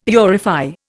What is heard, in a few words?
"purify", Indian; Dictionary